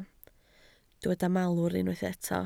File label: "malwr", Welsh